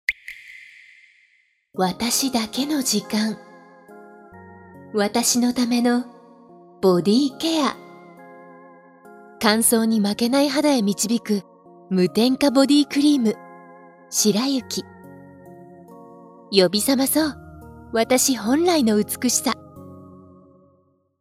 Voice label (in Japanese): 化粧品ＣＭ